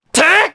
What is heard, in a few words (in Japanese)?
Damage, jp; voices; heroes; Baudouin; kings raid